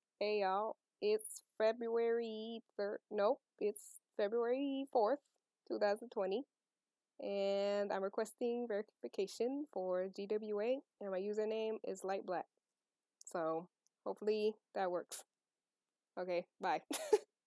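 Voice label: Verification, Female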